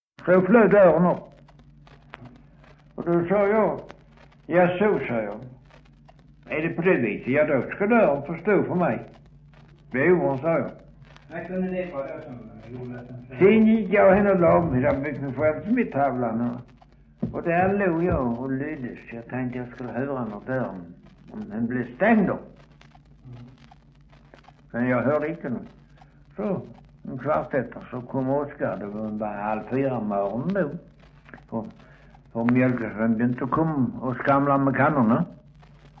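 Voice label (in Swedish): Östra Ingelstad Skåne, dialekter; Folkminnen; Svenska sagor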